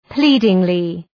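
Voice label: "pleadingly", English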